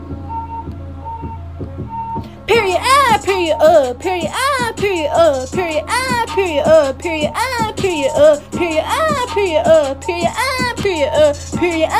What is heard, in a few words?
period ahh